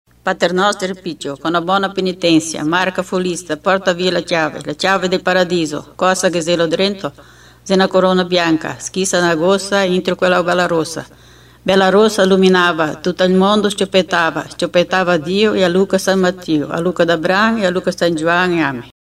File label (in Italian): Preghiera Pater Nostro